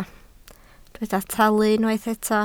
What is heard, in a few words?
"talu", Welsh